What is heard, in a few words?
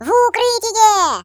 Incoming, ru; hedgewars